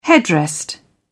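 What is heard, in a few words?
"headrest", British English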